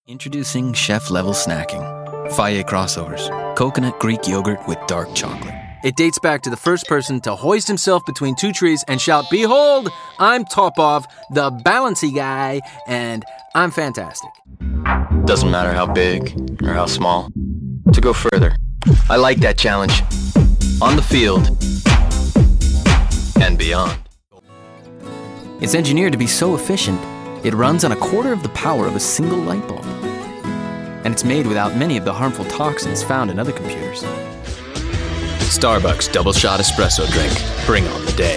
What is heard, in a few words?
VO sample Commercial; reel